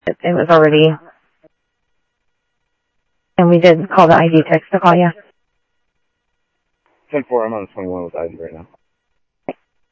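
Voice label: Sheriff East County, scanner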